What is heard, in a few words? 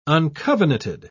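"uncovenanted", British English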